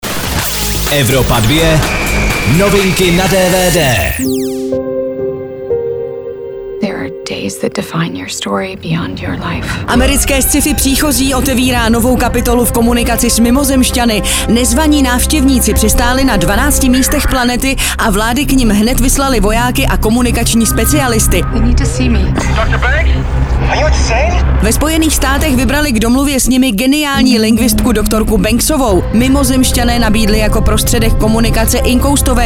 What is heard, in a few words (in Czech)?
dvd news; evropa2; prichozi